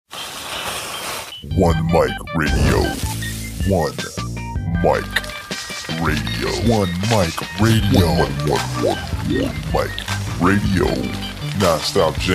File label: MC PROMO